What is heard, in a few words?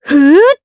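Vox Casting, Japanese; kingsraid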